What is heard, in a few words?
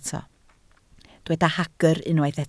"hagr", Welsh